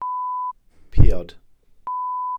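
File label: "piod", Welsh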